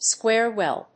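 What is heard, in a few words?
"square well", English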